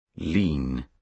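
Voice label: "lean", British English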